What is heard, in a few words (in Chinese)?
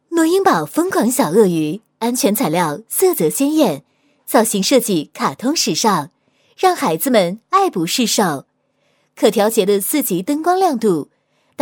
女, 解说玩具; 诺婴宝疯狂小鳄鱼配音